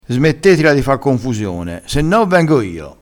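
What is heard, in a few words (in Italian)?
"se no", dialettale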